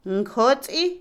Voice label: ungüento, Otomí, mujer; Ropa; INALI

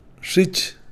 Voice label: marcharse, Kakchikel

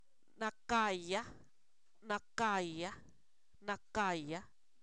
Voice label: Zapoteco, san miguel albarradas; oscuridad; fenomenos naturales

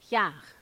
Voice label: "árbol", Zapoteco; INAH; INALI

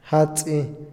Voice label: volar, Otomí, hombre; Movimiento; INALI

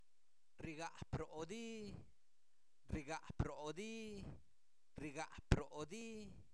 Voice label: cachetean (ustedes), Zapoteco, santo domingo albarradas; INALI; verbos

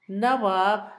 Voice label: comezón, Zapoteco, Asunción Ocotlan; Enfermedades; INALI; Haspelmath

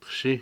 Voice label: topo, Cucapá; Animales

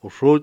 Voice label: "ustedes", Cucapá; Parentesco

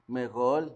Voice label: "abuelo", Zapoteco Magdalena Ocotlan; Humano; INALI; Haspelmath

